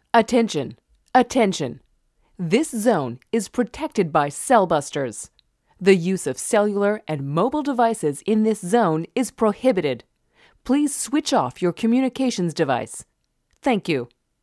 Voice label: Female; CellBusters